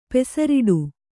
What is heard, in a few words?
"ಪೆಸರಿಡು", kannada